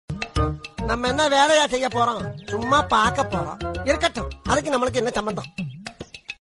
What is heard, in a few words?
funny dialogue; vadivelu